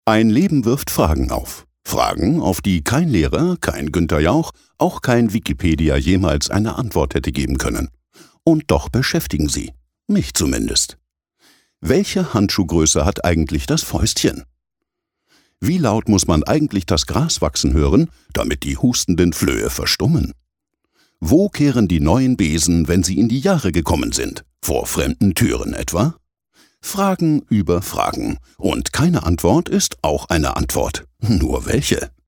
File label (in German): Schauspiel Freundlich